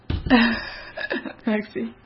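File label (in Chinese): "余", Mandarin Chinese; hsk; chinese flashcards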